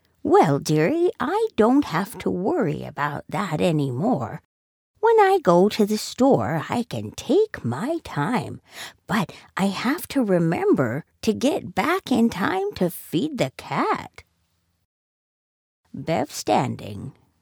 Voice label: female english canadian neutral north american accent senior character; voices; devine voices